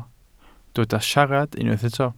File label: "siarad", Welsh